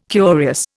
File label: "curious", Indian